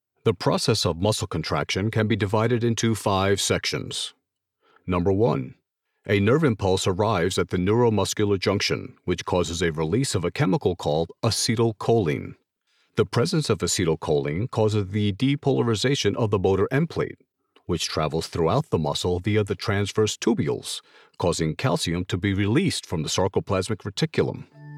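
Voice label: eLearning Explainer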